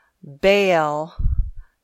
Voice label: "bail", English